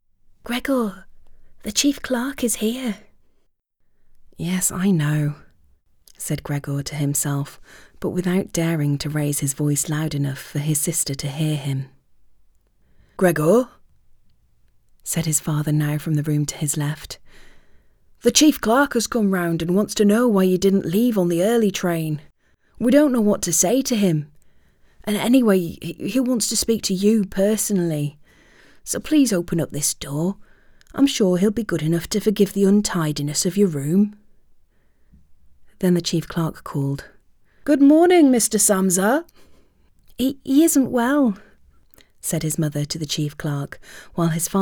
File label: Audiobook Fantasy